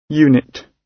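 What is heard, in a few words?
"unit", British English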